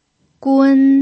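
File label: "gun" first tone, pinyin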